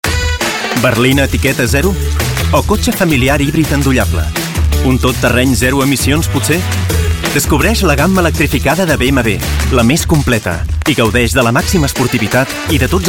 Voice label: catalan automotive